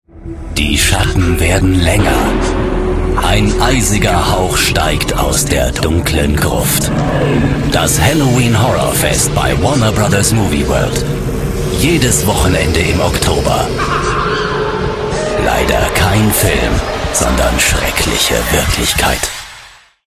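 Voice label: DE M commercial, German, male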